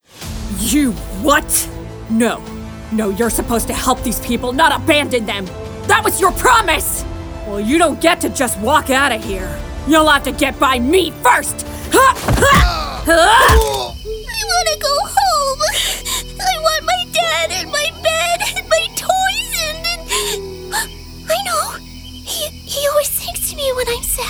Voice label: Voice Over Enchantress Animation